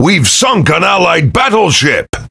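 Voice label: Pirate Speech Destroy Ally Battleship, English pirate; World Of Warships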